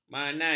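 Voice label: "manai", Malay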